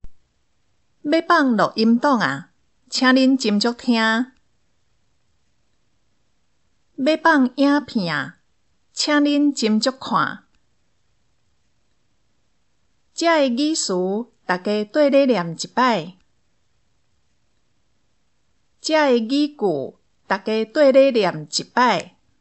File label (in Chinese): 課室生活用語 (台語)